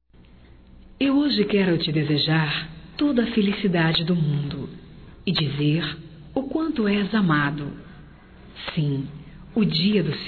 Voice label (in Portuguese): IRMAO, Telemensagens, Aniversarios, fem